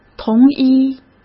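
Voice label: "同一", Mandarin Chinese; hsk; chinese-flashcards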